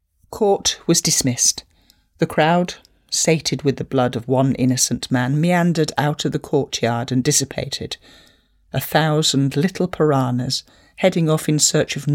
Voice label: audiobook